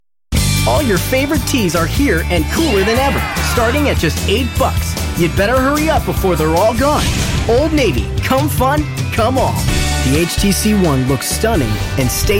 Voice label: Commercials, Men